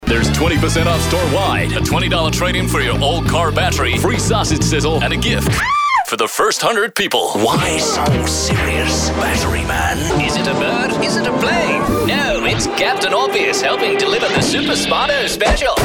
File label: CHARACTERS ACCENTS AND ANIMATION